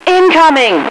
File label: incoming, radio female